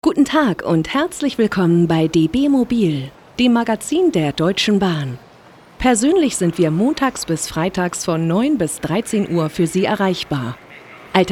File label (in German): Telefonansage